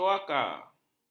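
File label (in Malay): "coakar", Malay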